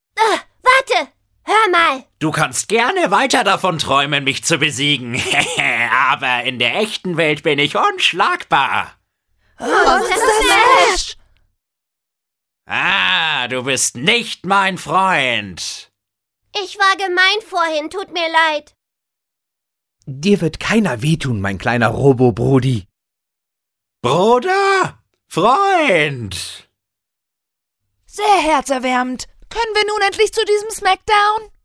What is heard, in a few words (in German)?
Synchron